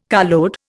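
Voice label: "carload", Indian English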